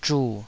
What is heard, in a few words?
"zhu3", Taiwanese